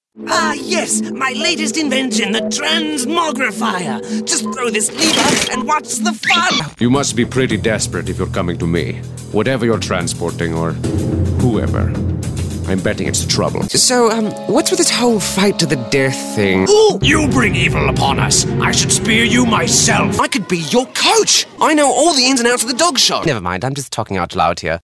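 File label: British characters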